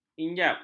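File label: "injap", Malay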